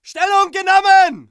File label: ger point captured